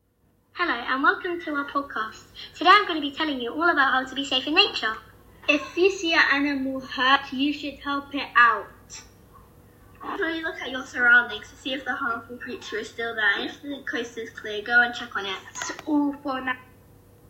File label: Podcast